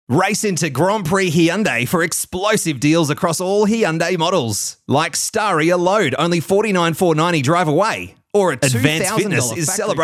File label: Hard Sell; voice-overs